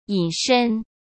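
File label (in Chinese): "隐身" Mandarin; hsk; chinese flashcards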